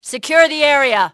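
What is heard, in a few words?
"secure the area", english, female; XBOX; enemy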